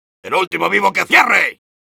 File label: battlecry, es; Soldier